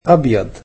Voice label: "white", egyptian